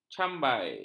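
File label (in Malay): "cambai", Malay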